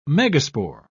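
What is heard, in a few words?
"megaspore", British English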